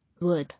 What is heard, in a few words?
"Word", English